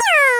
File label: voiceclip sad short